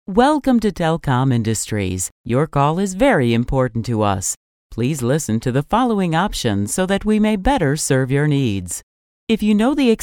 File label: female phone systems, english